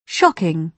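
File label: "shocking", British English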